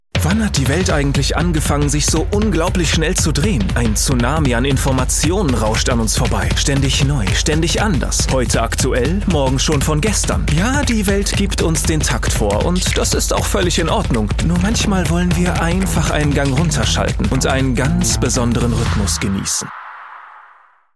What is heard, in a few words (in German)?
werbung hektisch voiceovers; emmi